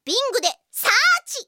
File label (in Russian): zvuki s golosom iaponskoi anime devushki